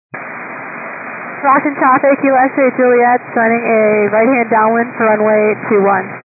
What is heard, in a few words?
122 725MHz, scanner radio